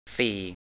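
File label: "สี่" Thai, male; 'four'; sì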